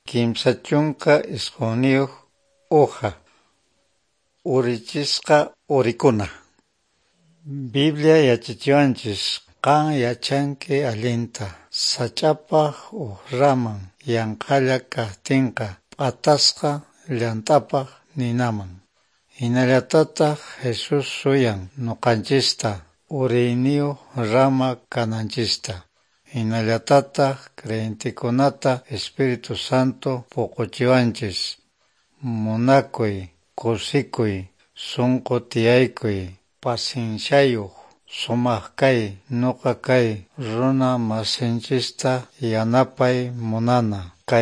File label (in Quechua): good news quechua norte de bolivia apolo; bible